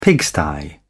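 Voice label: "pigsty", British English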